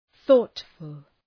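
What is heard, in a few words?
"thoughtful", English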